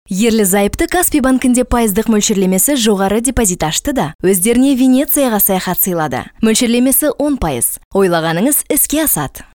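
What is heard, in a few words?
kazakh female commercial; voice demos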